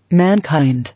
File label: "Mankind", English